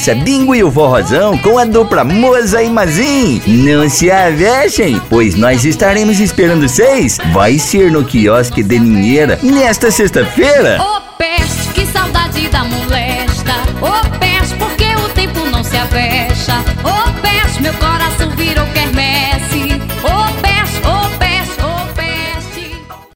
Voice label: VOZ IMPACTO GRAVE locucao caipira para festa junina vinhetas

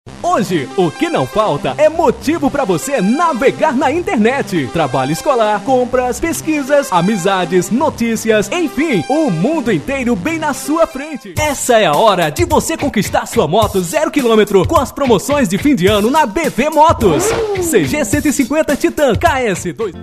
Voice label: comercial

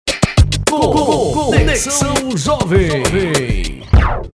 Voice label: locutor vinheta; conexao jovem

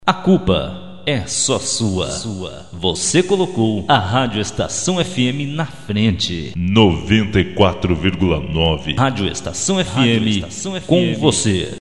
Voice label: vinheta radio

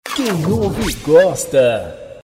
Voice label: vinhetas quem ouve gosta

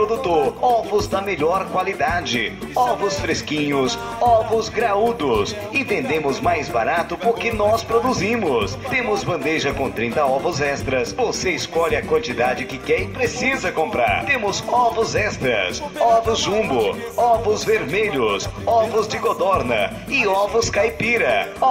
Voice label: vinhetas de carros carro do ovo

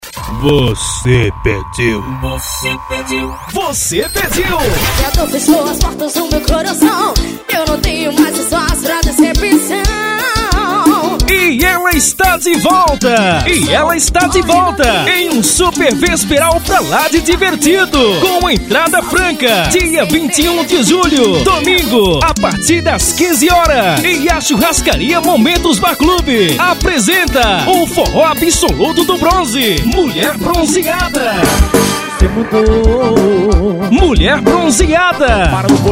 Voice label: offs locução

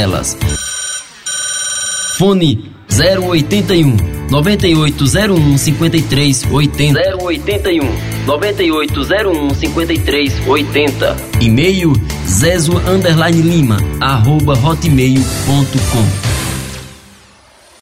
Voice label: comercial studio locutor

